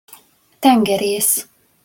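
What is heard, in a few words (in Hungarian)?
"tengeresz", Hungarian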